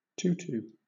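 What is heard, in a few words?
"tutu", British English; pronunciations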